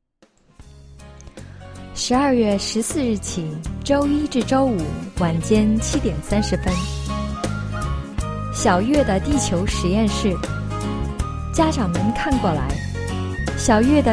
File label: Children Science Show